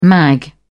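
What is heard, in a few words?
"mag", British English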